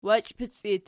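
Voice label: "wachipic" locative, Archi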